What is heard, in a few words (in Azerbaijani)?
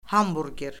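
"hamburger", Azerbaijani; Orfoqrafiya Lüğəti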